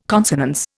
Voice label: "consonance", Indian